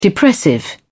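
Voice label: "depressive", English, British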